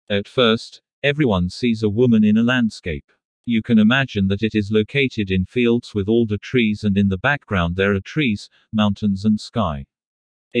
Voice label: A cause of war speech English